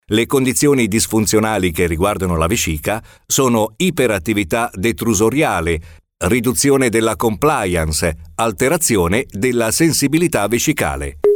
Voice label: italian e-learning